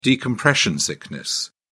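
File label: "decompression sickness", British English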